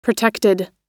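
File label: "protected", American English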